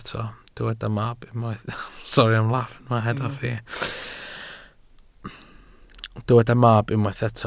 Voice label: "mab", Welsh

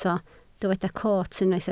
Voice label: "coot", Welsh

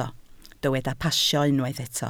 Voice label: "pasio", Welsh

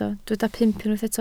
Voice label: "pump", Welsh

